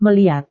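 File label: "melihat", Indonesian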